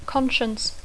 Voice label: "conscience", English; consciència